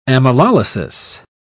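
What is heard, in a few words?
"amylolysis", British English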